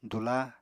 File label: "dula", Apatani